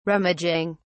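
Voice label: "rummaging", English, British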